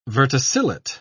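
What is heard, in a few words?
"verticillate", British English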